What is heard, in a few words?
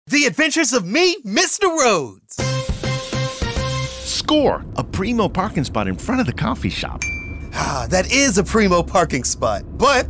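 driver radio English; pedestrian safety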